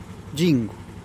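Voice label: "gingu'", Teotitlán del Valle Zapotec; vulture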